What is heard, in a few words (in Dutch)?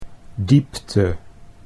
"diepte", Dutch